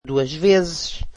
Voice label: portuguese twice

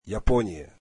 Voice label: russian Japan

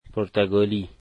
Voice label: "Portuguese", dari

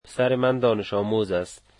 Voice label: my son is a student, dari

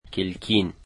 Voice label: "window", Dari

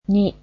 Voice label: "two", japanese

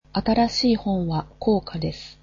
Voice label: japanese new books are expensive

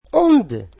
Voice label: portuguese where

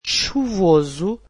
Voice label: portuguese rainy

